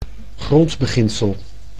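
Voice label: "grondbeginsel", Dutch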